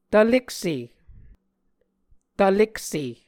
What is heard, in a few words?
"tvlekse", muscogee